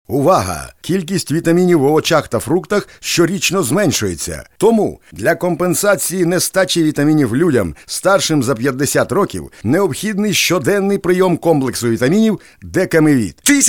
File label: Ukr